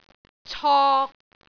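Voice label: "cok3", Cantonese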